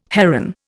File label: "heron", Indian